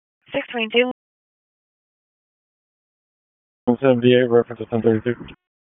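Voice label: Police District Dispatch; Raleigh Police Southwest District Disp; wake; p25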